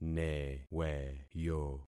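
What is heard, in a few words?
"neweyo", concatenated syllables; Affixing experiment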